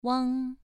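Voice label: "wang" Mandarin Chinese, second tone, pronunciation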